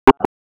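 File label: Wake Forest Police Dispatch, p25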